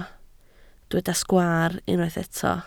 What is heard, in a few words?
"sgwaar", Welsh